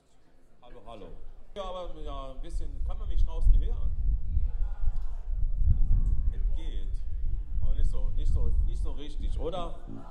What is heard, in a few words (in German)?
Ansage, Konzert; Boemundhof, Saarburg